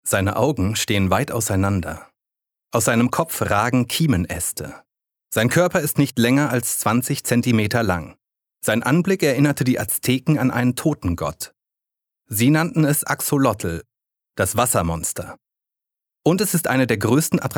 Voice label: Dokumentarischer Text

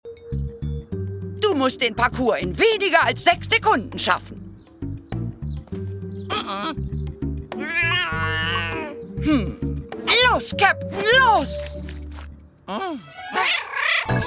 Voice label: Synchron Kinderserie, Lip-Sync (Synchron), German; Bobby und Bill | Kinderserie

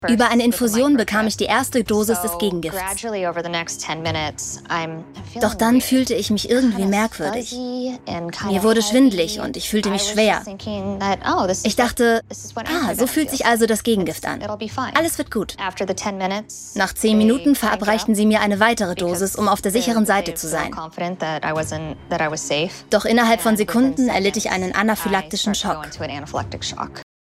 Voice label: Voiceover Dokuserie Erzaehlung

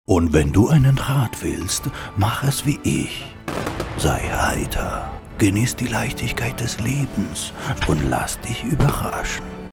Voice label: DEUTSCH Hoerspiel; LOFT VOICES

